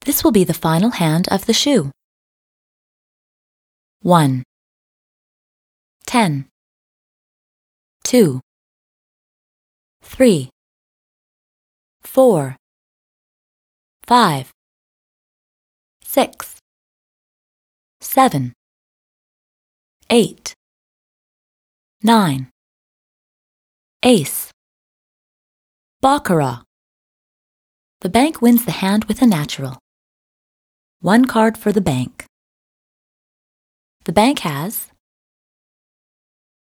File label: Voice Over; Baccarat; TABLEGAMES